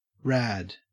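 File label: "rad", Australian English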